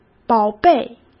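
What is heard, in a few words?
"宝贝", Mandarin Chinese; chinese-flashcards; hsk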